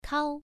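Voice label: "kao", Mandarin Chinese, first tone, pronunciation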